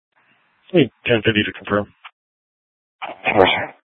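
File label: Sheriff, Parks, Sheriff radio scanner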